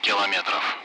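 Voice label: Speech, Russian; Allied Flight; DCS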